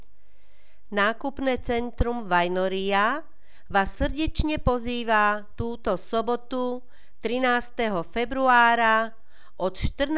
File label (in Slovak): komercne hlasenie; nc vajnoria-babkove predstavenie